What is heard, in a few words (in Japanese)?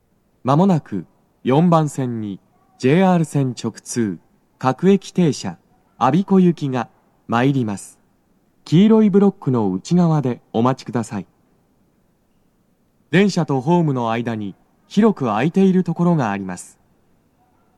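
接近放送 男声, 各駅停車 我孫子行; 日比谷駅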